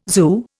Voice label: "zoo", Indian